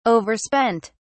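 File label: "overspent", English, British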